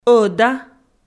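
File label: "ӧда", Komi, female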